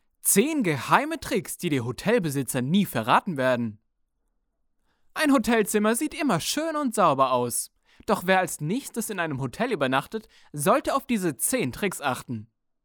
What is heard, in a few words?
GERMAN